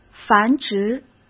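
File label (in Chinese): "繁殖", Mandarin Chinese; hsk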